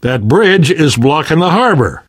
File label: harbor blocked, survivor voice; left4dead; namvet; Source engine